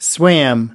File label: "swam", us